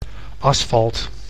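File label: "asfalt", Dutch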